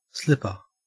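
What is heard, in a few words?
"slipper", Australian English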